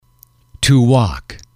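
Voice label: "to walk", English, male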